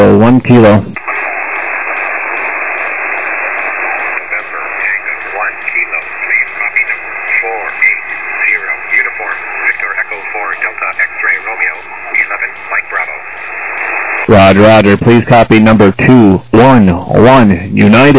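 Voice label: upper sideband 21MHz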